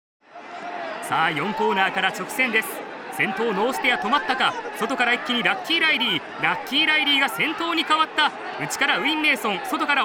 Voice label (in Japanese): keiba 実況